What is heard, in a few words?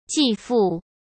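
"继父", Mandarin Chinese; hsk; chinese-flashcards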